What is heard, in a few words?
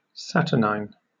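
"saturnine", British English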